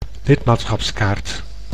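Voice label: "lidmaatschapskaart", Dutch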